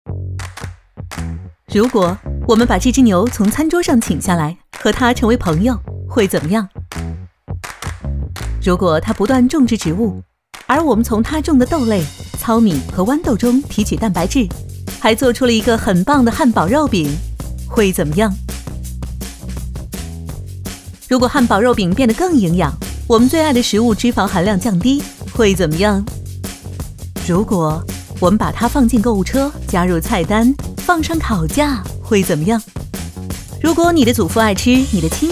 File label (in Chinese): 女 明快爽朗 短片